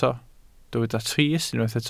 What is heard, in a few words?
"trist", Welsh